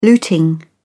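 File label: "looting", British English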